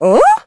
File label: confused; Crypt of the NecroDancer